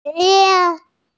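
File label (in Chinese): "shi jian", Mandarin Chinese, female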